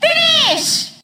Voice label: announcer finish; mario party